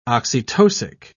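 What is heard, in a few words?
"oxytocic", British English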